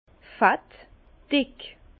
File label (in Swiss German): "fett", Bas Rhin; vin; gras